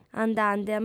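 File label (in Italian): "andande", sarda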